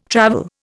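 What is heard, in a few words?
"travel", Indian English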